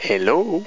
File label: male hello; Foundation